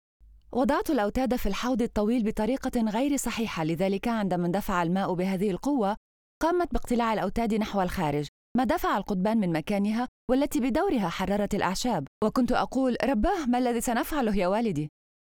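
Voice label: arabic female narration, voice demos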